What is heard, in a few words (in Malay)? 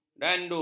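"dangdut", Malay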